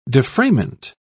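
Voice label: "defrayment", British English, dictionary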